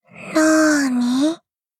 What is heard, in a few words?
shock